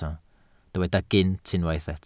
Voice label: "gynt", Welsh